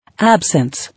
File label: "Absence", English